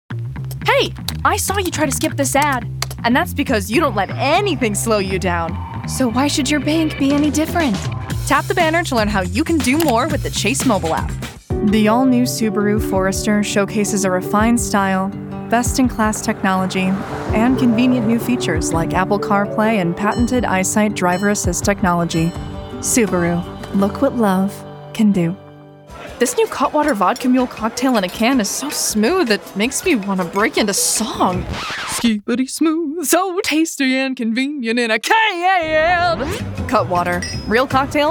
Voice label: Voiceover Commercial Women; Chicago